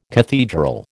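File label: "cathedral", American English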